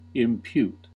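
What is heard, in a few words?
"impute", US English; pronunciations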